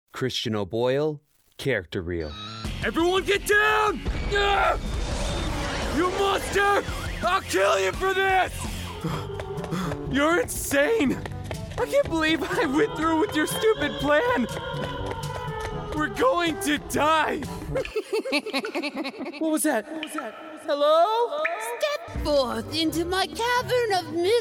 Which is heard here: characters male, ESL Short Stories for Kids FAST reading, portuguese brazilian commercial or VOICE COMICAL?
characters male